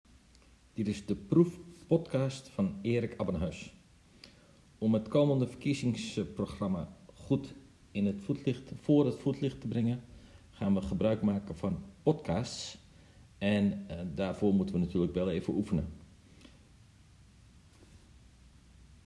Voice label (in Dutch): podcast proef; vvd; aalsmeer